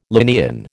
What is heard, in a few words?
"Linnaean", American